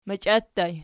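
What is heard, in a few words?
"machia" ergative plural, Archi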